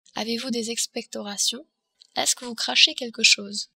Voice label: expectorations, french

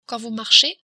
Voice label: quand marcher, french

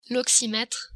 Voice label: "oxymetre", french

